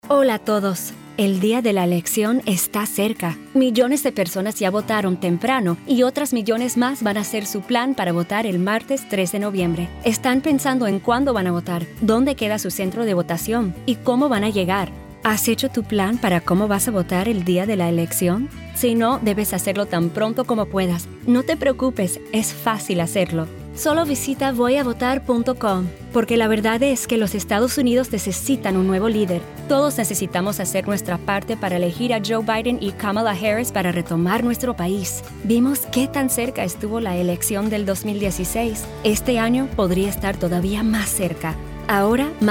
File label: radio Spanish; Biden GOTV; PreElection